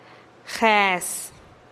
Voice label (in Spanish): "jes" siete, teotitlan